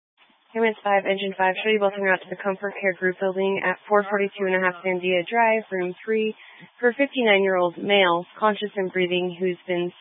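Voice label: Fire Dispatch, scanner audio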